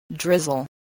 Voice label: "drizzle", English